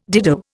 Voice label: "dido", Indian